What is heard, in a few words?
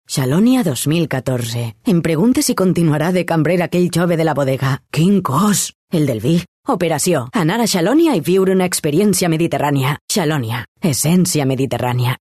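Catalan, female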